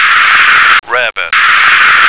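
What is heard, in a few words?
"rabbit", American English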